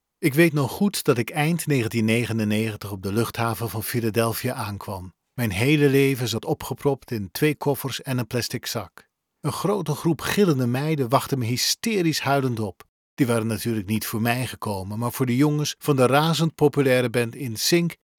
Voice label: Microphone; Nethervoice